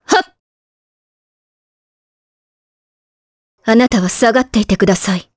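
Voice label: vox get Japanese; Priscilla; kingsraid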